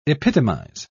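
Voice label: "epitomise", British English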